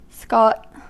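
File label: "scot", US English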